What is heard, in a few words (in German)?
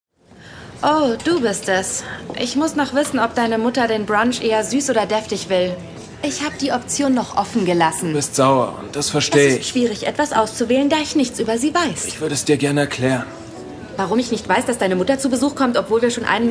Synchron; Spinning Out